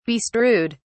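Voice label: "bestrewed", British English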